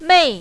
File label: "mei4", mandarin, female